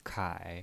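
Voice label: "kai", third tone, pinyin voice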